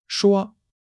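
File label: "shuo1", Chinese; HSK1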